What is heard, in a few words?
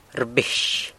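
"rbix", San Lucas Quiavini Zapotec; rings (of a bell)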